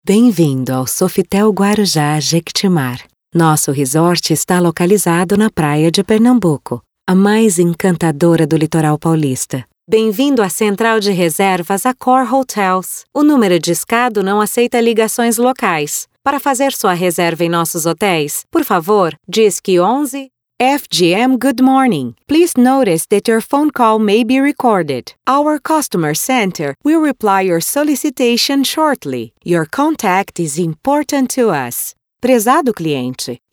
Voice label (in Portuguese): espera telefonica